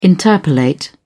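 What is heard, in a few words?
"interpolate", British English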